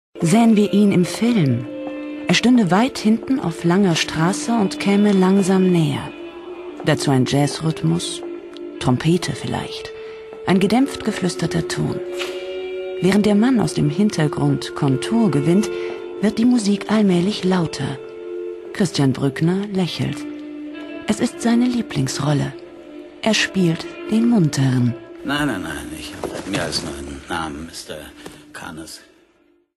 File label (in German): Feature, frauen; WDR